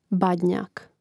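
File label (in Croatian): "badnjak", Croatian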